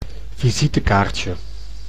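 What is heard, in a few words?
"visitekaartje", Dutch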